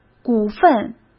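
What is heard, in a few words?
"股份", Mandarin Chinese; chinese flashcards; hsk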